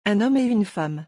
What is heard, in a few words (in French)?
"un homme et une femme" French; pronunciation; forbidden liaisons